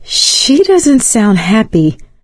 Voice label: heard witch, survivor voice; Left 4 Dead 2; Source Engine; video games; producer

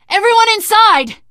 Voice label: stay together inside, survivor voice teen girl

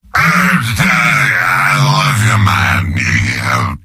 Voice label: demoman gibberish; mvm

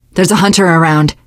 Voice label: heard hunter, teen girl, voice; survivor voice

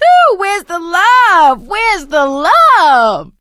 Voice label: taunt, survivor voice; source engine; left 4 dead 2; producer

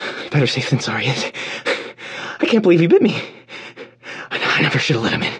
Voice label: radio initial broadcast; left4dead; churchguy; Source engine

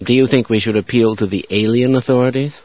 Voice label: alien appeal, scientist; Source engine

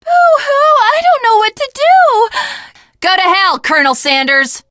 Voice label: initial meeting, teen girl

